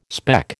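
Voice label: "speck", American English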